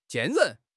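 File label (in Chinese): "坚韧", Mandarin Chinese; HSK6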